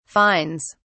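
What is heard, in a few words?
"fines", British English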